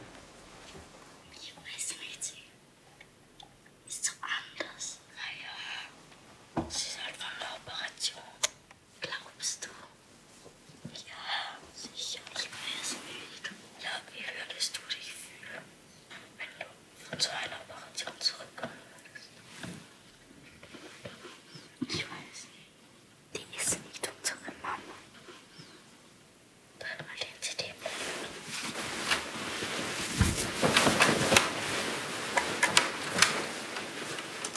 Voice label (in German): fluestern